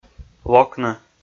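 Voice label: "локны", Komi, male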